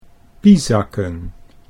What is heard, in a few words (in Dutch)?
"piezakken", Dutch